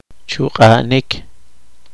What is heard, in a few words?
poqomchi; apersogar